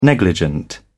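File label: "negligent", British English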